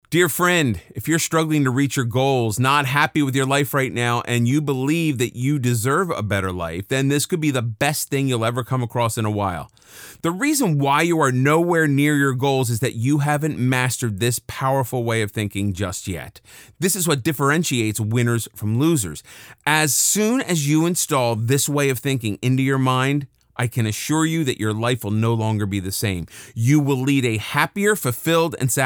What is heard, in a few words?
Voiceover